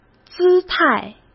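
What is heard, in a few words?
"姿态", Mandarin Chinese; hsk; chinese-flashcards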